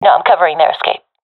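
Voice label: guarding escapezone, professional female; vo; Counter Strike Model Pack; CS:GO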